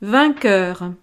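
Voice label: "vainqueur", French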